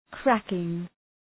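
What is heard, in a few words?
"cracking", English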